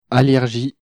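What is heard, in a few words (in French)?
"allergies", French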